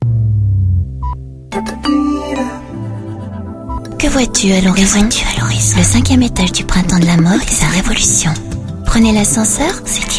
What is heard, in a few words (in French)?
voix grave; le printemps